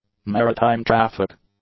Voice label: "maritime traffic", English; nouns

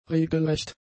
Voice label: "regelrecht", German; adjectives

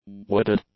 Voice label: "wooded", english; adjectives

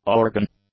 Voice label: "organ", English; nouns

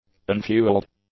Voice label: "unfueled", english; adjectives

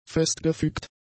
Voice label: "festgefügt", german; adjectives

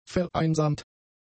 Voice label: "vereinsamt", German; adjectives

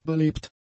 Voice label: "belebt", German; adjectives

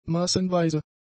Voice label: "massenweise", German; adjectives

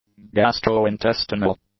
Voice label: "gastro-intestinal", English; adjectives

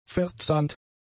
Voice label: "verzahnt", German; adjectives

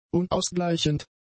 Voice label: "unausgleichend", German; adjectives

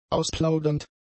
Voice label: "ausplaudernd", German; adjectives

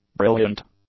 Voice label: "brilliant", English; adjectives

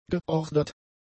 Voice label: "geordert", German; adjectives

